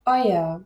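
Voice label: "euer", German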